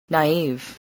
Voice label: "naive", voice